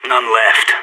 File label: no enemies left, player vo sas